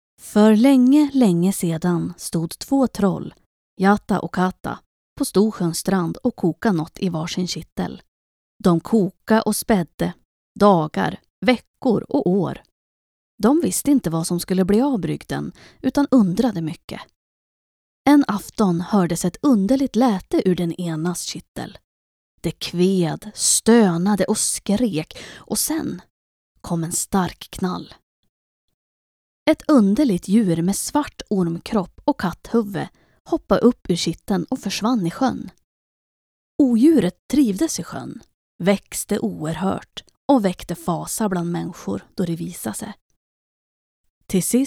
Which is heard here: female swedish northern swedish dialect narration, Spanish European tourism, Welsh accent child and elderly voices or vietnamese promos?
female swedish northern swedish dialect narration